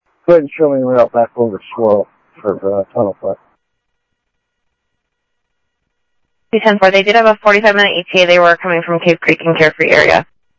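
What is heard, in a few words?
Sheriff Lake Patrol, scanner audio; MCSO Lakes 1; ThompsonPeakScot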